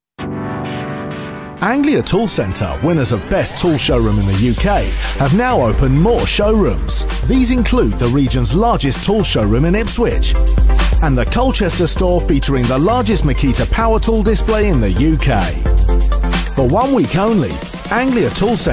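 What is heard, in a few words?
English UK, Werbung, Freundlich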